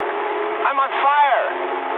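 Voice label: English on fire mood med; War Thunder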